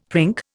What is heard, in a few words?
"prink", Indian; Dictionary